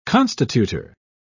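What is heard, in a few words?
"constitutor", English, British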